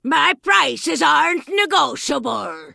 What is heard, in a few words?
Vrykul Female Magic User Vendor